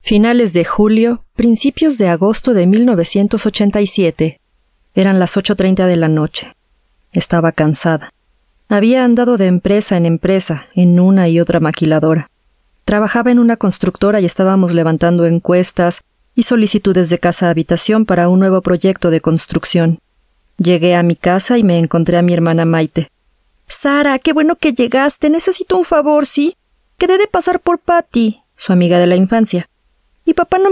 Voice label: spanish voice over female audiobook